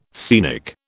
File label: "scenic", British